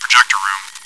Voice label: chitchat; svencoop

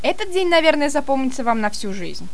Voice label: monologue; svencoop; paranoia

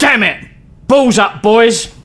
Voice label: Run, male, voice commands; svencoop

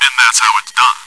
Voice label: cheer; svencoop

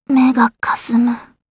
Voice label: help, voice commands; svencoop; Blanc

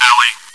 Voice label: chitchat, bot, voice commands; svencoop

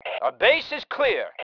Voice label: base clear, MALE

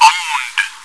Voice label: taunt, voice commands, bot; svencoop

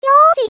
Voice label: yes, voice commands; svencoop; Miku

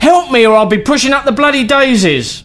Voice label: Dying, male, voice commands; svencoop

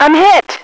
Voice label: im hit, radio, female

